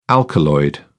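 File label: "alkaloid", British English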